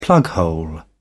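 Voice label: "plughole", British English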